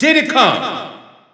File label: French Announcer; Diddy Kong; SSBU